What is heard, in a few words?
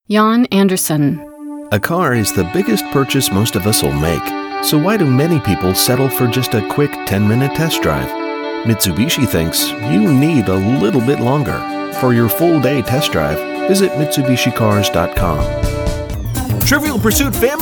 Voice Talent Commercial